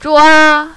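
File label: "zhua" first tone, mandarin, female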